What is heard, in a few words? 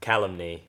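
"calumny" English, Australian accent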